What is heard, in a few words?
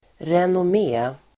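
"renommé", Swedish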